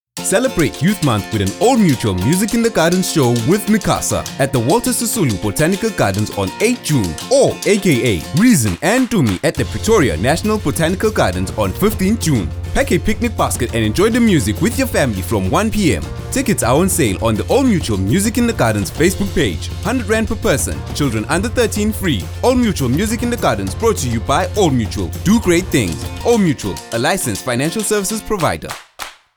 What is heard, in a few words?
English